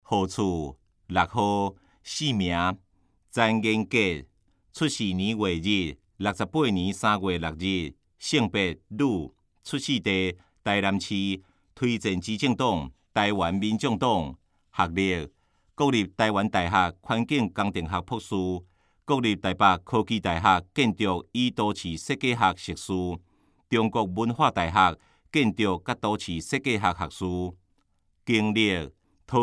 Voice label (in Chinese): 台語; 有聲公報; 市議員